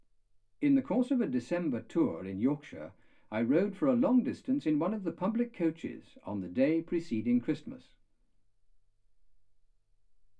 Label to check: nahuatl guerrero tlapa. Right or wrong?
Wrong